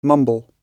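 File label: "mumble", British English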